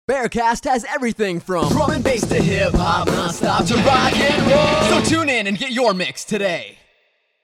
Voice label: radio imaging piece; Bearcast